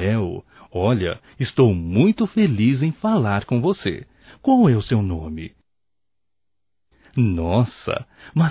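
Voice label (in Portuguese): NATAL PARA CRIANÇA (Masculino); Natal e ano novo; Telemensagens 2017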